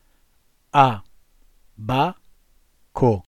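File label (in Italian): "abaco" Italian word, voce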